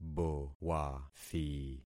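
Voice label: "bowathi", concatenated syllables; Affixing experiment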